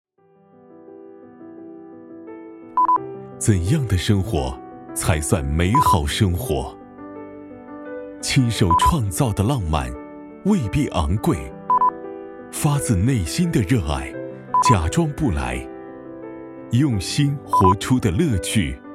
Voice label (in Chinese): 男 广告